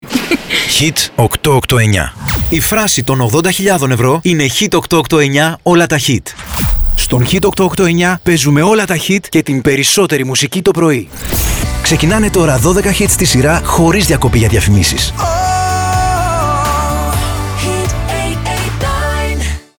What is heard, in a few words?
radio host sweepers promo; HIT889FM